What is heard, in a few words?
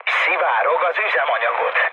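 tank damaged, mood med, Hungarian; War Thunder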